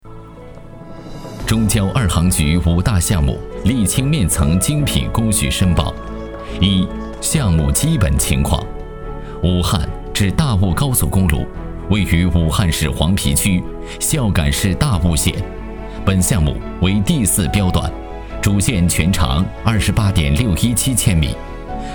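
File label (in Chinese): 国企老成沉稳男; 中交国企老成沉稳中交航局男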